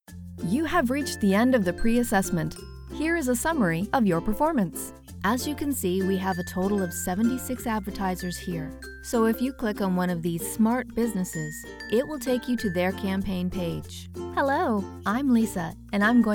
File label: Elearning